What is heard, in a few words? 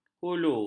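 "hulu", Malay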